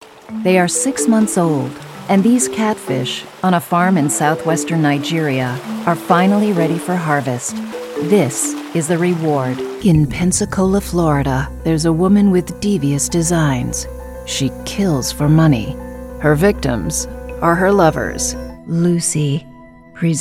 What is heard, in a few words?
Broadcast Narration